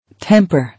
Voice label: "Temper", English

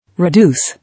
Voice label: "Reduce", English

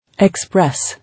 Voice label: "Express", English

